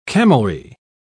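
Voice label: "camelry", British English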